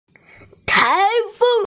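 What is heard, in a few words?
"typhoon", Mandarin; tai2feng1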